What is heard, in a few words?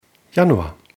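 "januar", German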